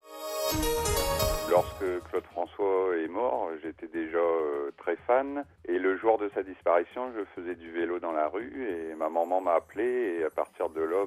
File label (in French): Repondeur; RTL les auditeurs se souviennent de Cloclo